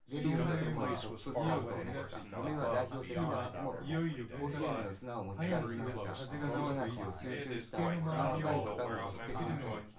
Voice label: male synthconv mix